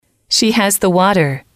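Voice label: "she has the water", English, female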